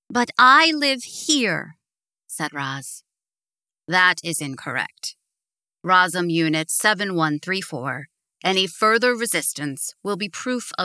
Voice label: Childrens, audiobooks